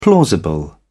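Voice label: "plausible", British English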